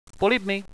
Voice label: negative, radio bot